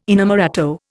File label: "inamorato", Indian